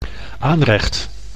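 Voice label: "aanrecht", Dutch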